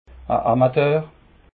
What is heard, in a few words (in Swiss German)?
"amateur", alsace